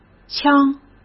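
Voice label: "腔", Mandarin Chinese; hsk; chinese-flashcards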